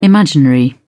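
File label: "imaginary", British English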